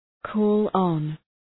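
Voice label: "call on", English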